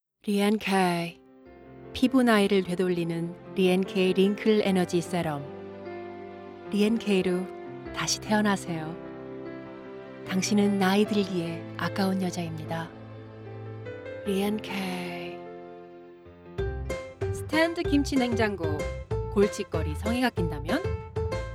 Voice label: Korean Commercial